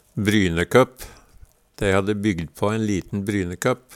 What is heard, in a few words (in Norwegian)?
"brynekøpp", Numedalsmål; Substantiv hankjønn